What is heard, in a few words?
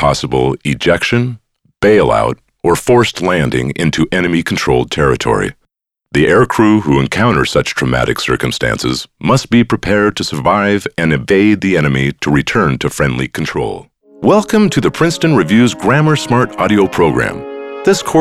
Narration